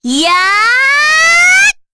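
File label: Vox Casting, korean; Rehartna; kingsraid; voices heroes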